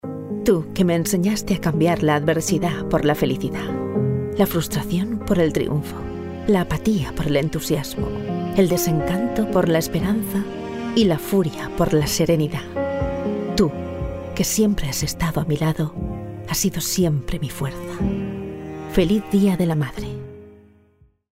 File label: COMMERCIAL, Spanish, female